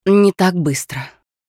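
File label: Hero Cooldowns Russian, Женщина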